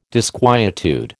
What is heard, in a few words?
"disquietude", American